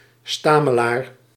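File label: "stamelaar", Dutch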